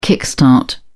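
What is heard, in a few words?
"kick start", British English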